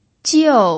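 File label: "jiu" fourth tone, pinyin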